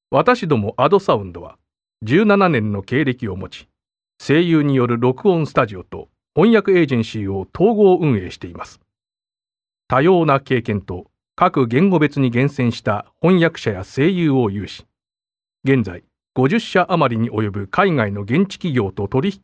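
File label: Japanese, male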